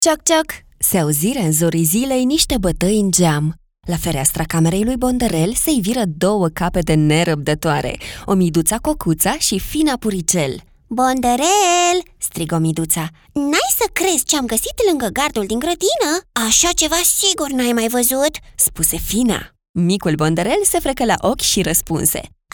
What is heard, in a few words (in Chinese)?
罗马尼亚语女